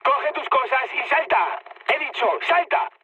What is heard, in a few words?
bailout, mood high, speech; War Thunder